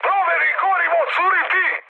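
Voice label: Serbian tank damaged mood high; speech; War Thunder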